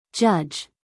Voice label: "judge", US English, female; everyone-can-use-english